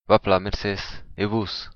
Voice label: fine thanks, occitan